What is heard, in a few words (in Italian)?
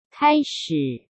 Cinese